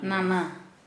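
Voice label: "maternal grandfather", Kera Mundari, female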